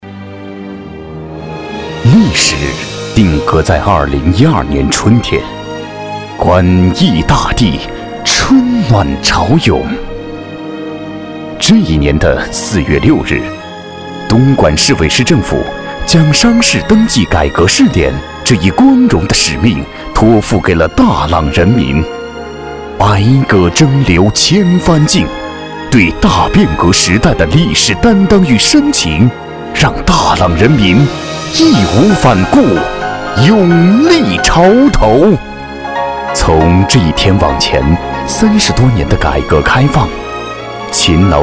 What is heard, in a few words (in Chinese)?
男 专题 激情; 东莞大朗镇